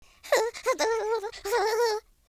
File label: scared, Cowgirl; speech